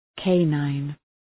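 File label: "canine", English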